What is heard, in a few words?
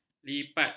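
"lipat", Malay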